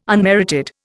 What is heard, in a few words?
"unmerited", Indian